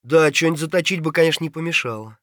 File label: scav mutter, voice; Escape from Tarkov; scav3